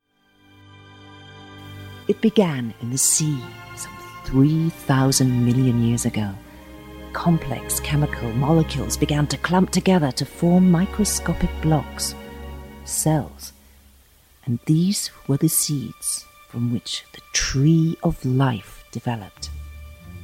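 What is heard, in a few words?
British English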